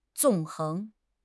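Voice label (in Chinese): "纵横", Chinese; HSK6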